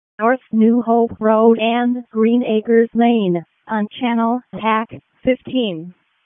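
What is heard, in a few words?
Raleigh Fire Department ALERT, p25; wake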